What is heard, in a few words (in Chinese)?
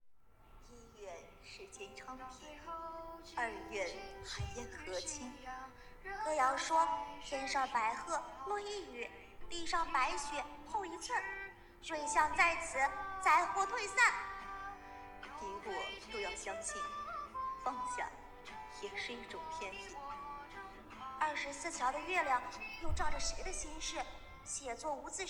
动漫